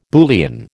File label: "Boolean", American